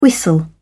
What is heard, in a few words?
"whistle", uk